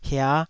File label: "hia5", taiwanese